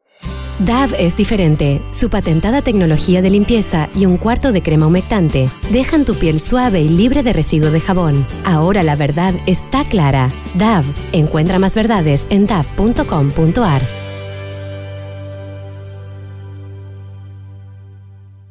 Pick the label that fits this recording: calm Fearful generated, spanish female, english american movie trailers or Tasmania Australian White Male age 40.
spanish female